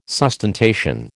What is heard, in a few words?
"sustentation", American